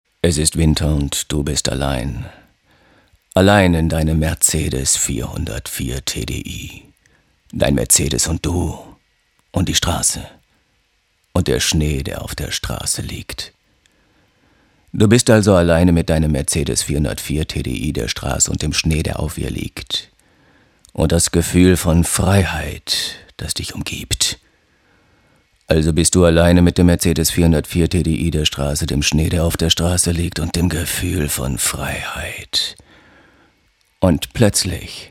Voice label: Werbung Dynamisch

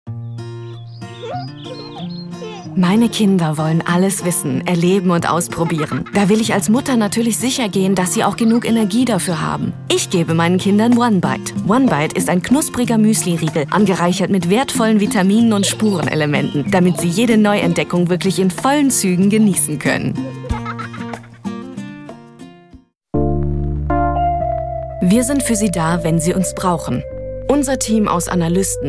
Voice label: Werbung Freundlich

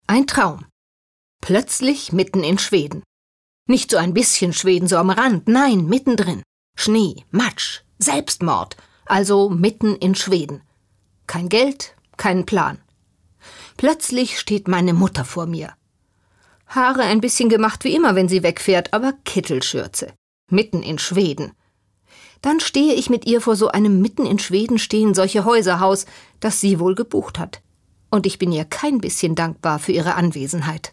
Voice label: Schauspiel Dynamisch

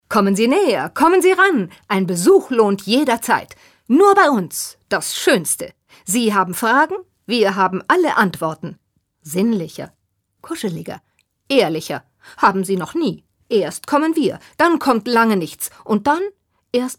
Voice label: Schauspiel Lebendig

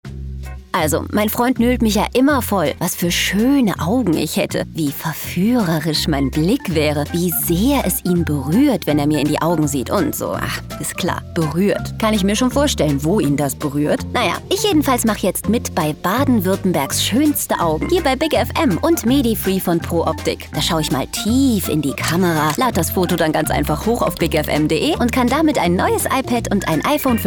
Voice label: Lebendig

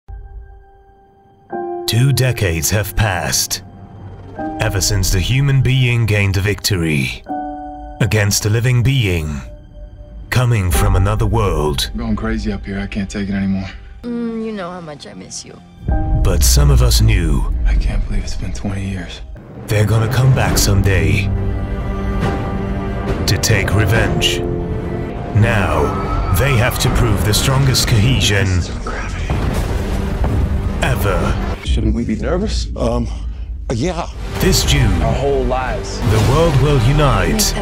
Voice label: Eng UK Dynamisch; Fremdtake

